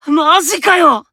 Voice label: Emo Praise